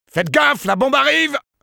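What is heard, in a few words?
Sniper cart moving forward defense, french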